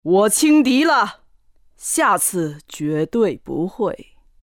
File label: respawn, zh; Legcom; Dota 2